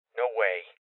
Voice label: Voices Refuse Male Young; rebel cops 2019